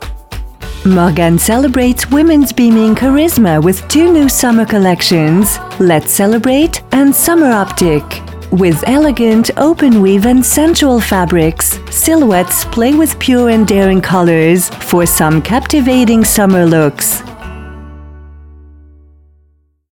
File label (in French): promo; MORGAN